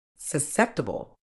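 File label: "susceptible", British English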